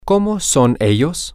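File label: what are they like, spanish, male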